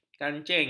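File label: "kancing", Malay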